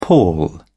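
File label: "pall", British English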